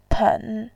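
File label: "pen", Mandarin, tone 3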